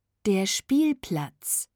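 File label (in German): "der Spielplatz", German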